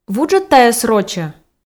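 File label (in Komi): вуджӧд тайӧс рочӧ, Komi, female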